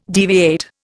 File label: "deviate", Indian